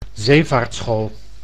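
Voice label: "zeevaartschool", Dutch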